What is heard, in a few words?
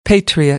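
"patriot", American English; AmericanBritish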